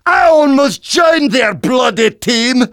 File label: Demoman jeers